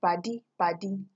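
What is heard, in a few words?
"paddy paddy", Nigerian Pidgin, female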